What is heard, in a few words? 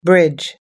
"bridge", us english